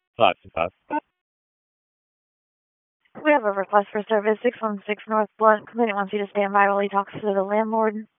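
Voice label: Raleigh Police Southeast District Dispatch, p25; wake p25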